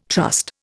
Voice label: "trust", Indian